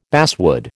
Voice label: "basswood", American